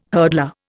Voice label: "hurdler", Indian